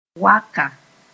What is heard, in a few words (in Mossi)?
"waka", Mooré; a shell